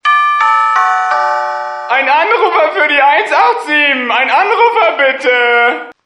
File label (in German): Kassendurchsage